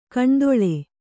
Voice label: "ಕಣ್ದೊಳೆ", kannada

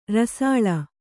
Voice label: "ರಸಾಲ", Kannada

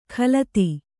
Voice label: "ಖಲತಿ", Kannada

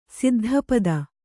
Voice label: "ಸಿದ್ಧಪದ", Kannada

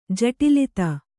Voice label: "ಜಟಿಲತೆ", Kannada

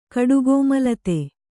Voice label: "ಕಡುಗೋಮಲತೆ", Kannada

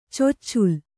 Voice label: "ಚೊಚ್ಚುಲ್", Kannada

